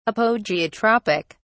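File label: "apogeotropic", British English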